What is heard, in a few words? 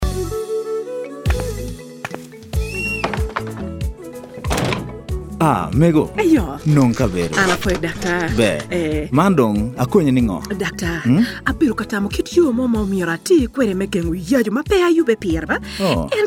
IUD Health Worker Spot Acholi